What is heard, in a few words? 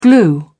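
"glue", English, British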